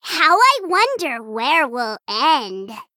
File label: Female Gnome Greetings; Mirror